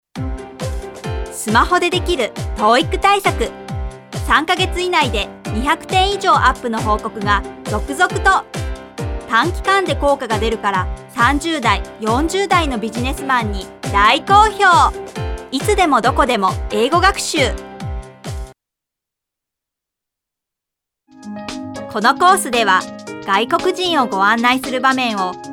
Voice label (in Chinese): 日语女 外语